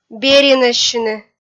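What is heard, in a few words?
"беринӧссьыны", Komi-Zyrian, female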